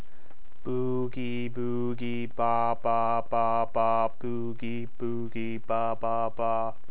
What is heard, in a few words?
real Speech